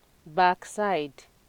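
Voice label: "backside", Nigerian Pidgin, female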